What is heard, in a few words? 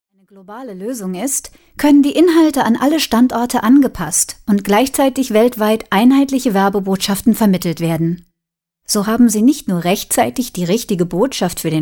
Narration German Female